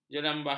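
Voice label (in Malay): "jerambah", Malay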